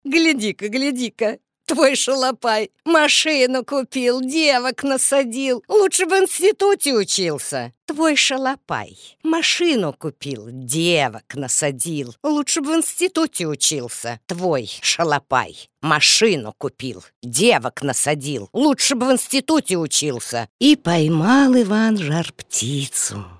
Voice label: RUS